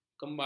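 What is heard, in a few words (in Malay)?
"kembar", Malay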